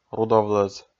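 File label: "рудовлӧз", Komi, male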